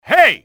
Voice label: hey, english male; XBOX; enemy